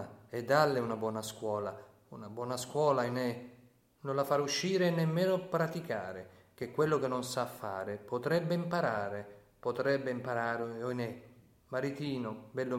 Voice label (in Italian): recitato La canzone della Zezza Peschici; Testo in dialetto peschiciano